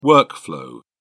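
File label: "workflow", British English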